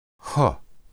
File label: "heu", Hebrew